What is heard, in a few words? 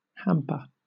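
"hamper" British English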